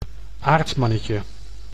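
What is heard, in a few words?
"aardmannetje", Dutch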